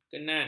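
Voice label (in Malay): "kenan", Malay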